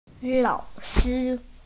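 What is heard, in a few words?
"lao3shi1", Mandarin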